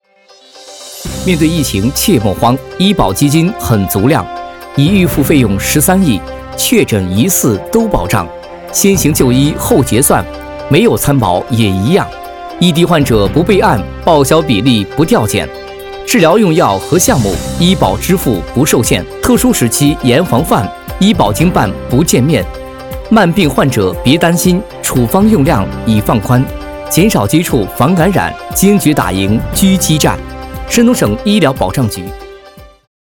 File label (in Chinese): 疫情宣传新闻播报防疫宣传